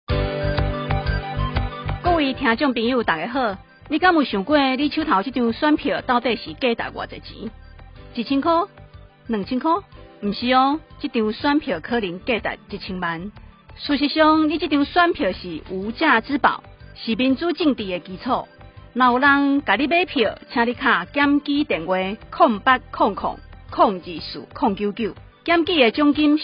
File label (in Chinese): 反賄選宣導廣播 台語; 111年地方公職人員選舉